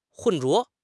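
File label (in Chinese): "混浊", Chinese; HSK6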